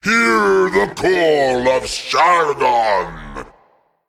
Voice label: Male Titan Trigger